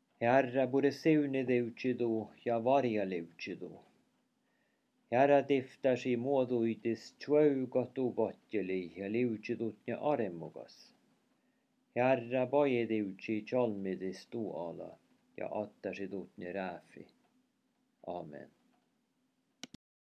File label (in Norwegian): velsignelsen nordsamisk; samisk kirkeliv